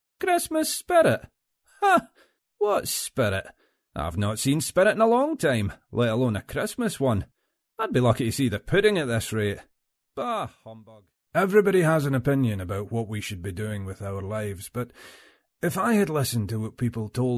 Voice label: male scottish voice over character

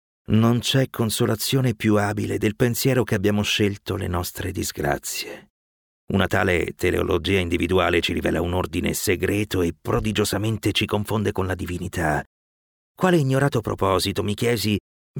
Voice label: male italian voice over talent narrative

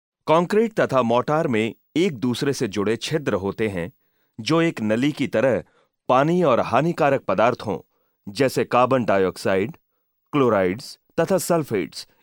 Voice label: male hindi voice over talent narrative

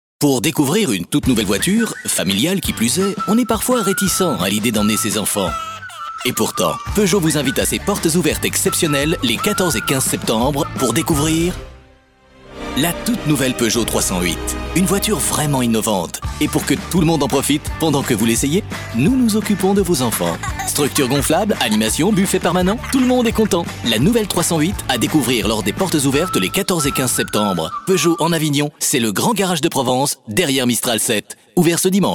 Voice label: male french voice over sales, france

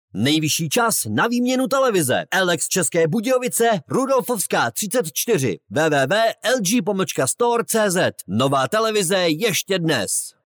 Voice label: male czech voice over sales

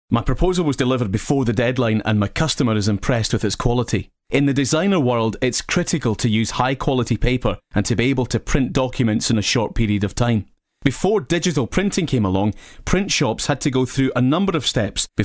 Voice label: male scottish voice over character

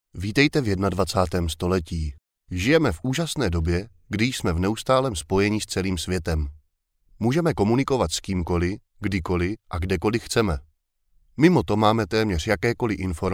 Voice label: male czech voice over narrative

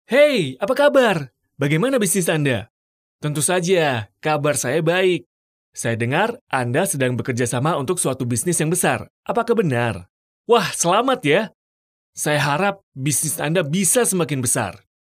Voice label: male indonesian voice over character

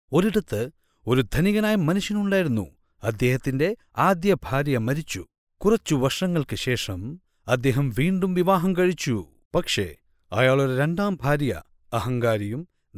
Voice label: male malayalam voice over talent narrative

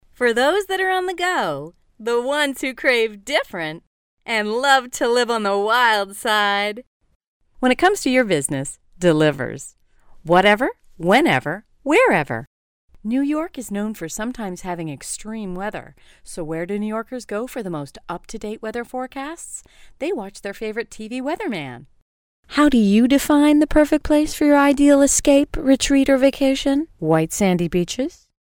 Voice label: female american voice over sales; english american

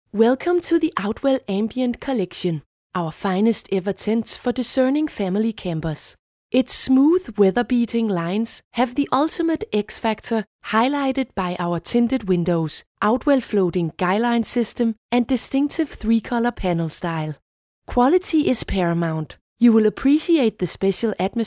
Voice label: female danish voice over english

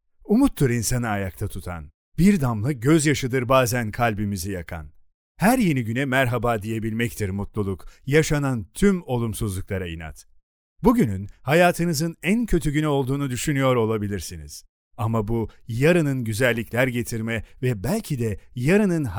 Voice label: male turkish voice over talent narrative

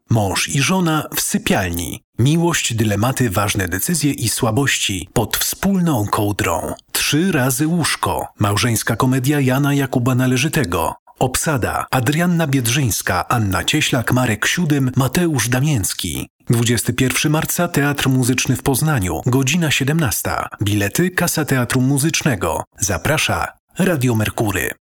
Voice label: male polish voice over talent sales

